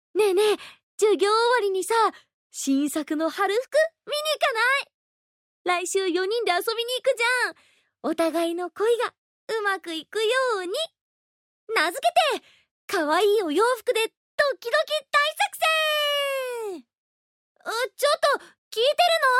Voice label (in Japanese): アニメ